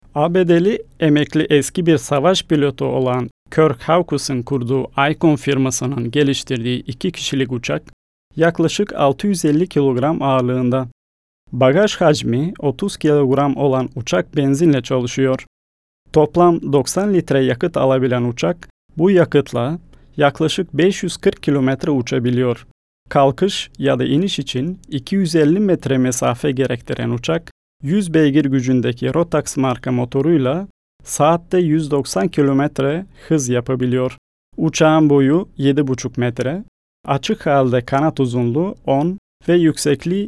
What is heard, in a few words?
turkish m narration